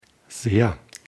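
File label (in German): "sehr", German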